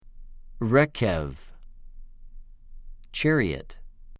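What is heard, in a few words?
"רֶ֫כֶב", Hebrew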